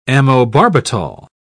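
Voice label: "amobarbital", English, British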